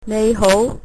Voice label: hello, cantonese